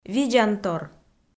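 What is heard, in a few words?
"видзантор", Komi, female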